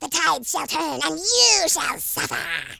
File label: "I'll get you", Worms Horror speech